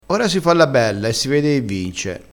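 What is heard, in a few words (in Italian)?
"bella", dialettale crespinese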